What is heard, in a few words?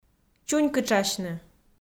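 "чунькытшасьны", Komi, female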